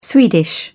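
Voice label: "swedish", British English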